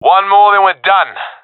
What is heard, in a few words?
enemies left one, professional; vo; Counter Strike Model Pack; CS:GO